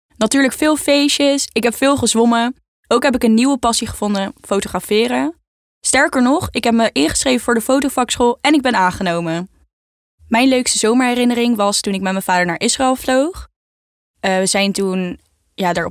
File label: locutora holandesa dutch voiceover; locutora de Holanda